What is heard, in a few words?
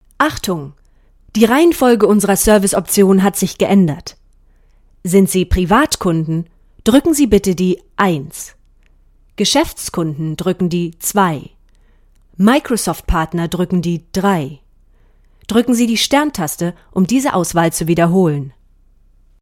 German Corporate